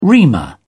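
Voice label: "reamer", British English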